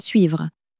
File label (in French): "suivre", French